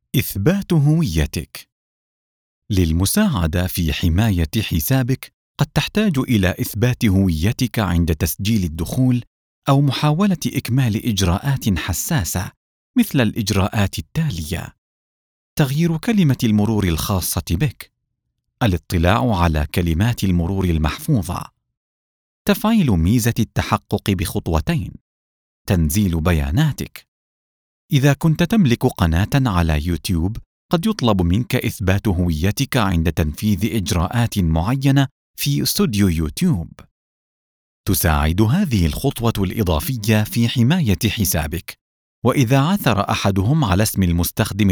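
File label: Arabic Elearning; GoLocalise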